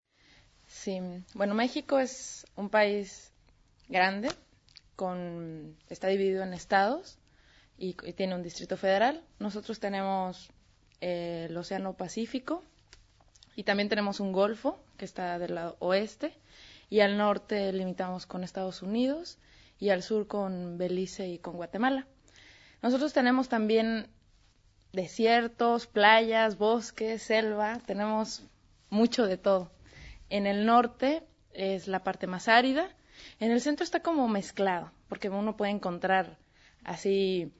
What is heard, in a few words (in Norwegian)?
Mexico, dialekt